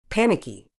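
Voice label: "panicky", medical English, British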